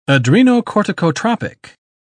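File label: "adrenocorticotropic", British English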